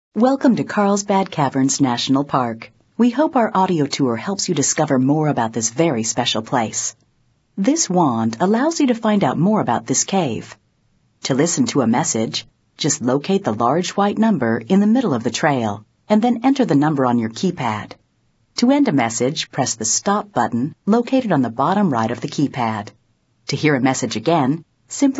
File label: audioguide